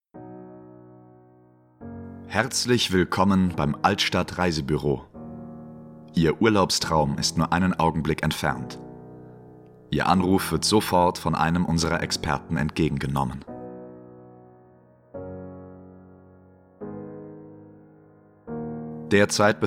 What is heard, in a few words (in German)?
Telefonansage